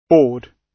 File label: "board", gb